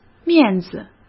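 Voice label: "面子", Mandarin Chinese; chinese flashcards; hsk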